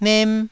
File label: "nem1", taiwanese